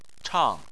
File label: "chang4", chinese